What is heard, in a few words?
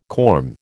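"corm", American English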